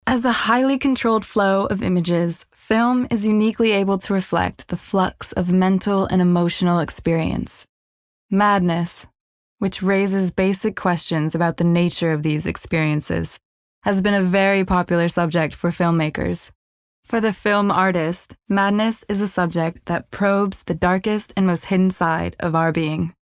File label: female english usa transatlantic accent film corporate narration; voices; devine voices